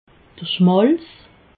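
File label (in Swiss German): "schmalz", Haut-Rhin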